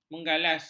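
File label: "menggalas", Malay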